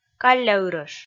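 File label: "калля ырӧш", Komi, female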